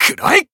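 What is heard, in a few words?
Voice attack, Japanese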